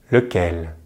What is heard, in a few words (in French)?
"lequel", French; Consonantes en francés; easypronunciation